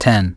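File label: "ten", English; iOS 4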